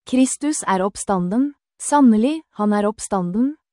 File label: Truly He Is Risen Norwegian; Kristus er oppstanden! Sannelig, Han er oppstanden!; THE PASCHAL TROPARION; Great and Holy Pascha